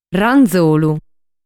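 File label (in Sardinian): "Ranzolu", Sardinian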